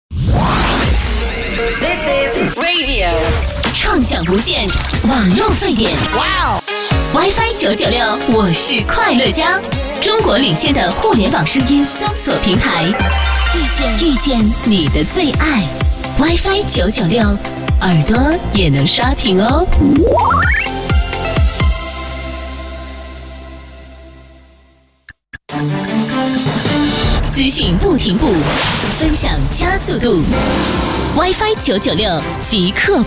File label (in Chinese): 女 广告 片头片花 亲切; 浙江民生广告WIFI996